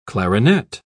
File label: "clarinet", pronounce